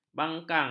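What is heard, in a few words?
"bangkang", Malay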